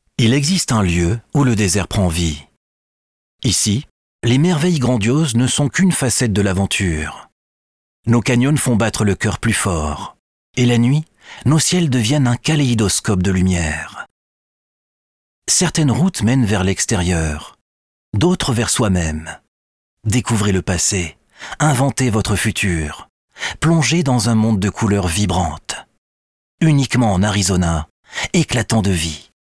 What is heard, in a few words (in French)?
Film Publicitaire, Voix Off TV; Arizona